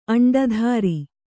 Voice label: "ಅಂಡಧಾರಿ", Kannada